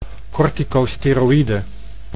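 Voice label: "cortecosteroide", Dutch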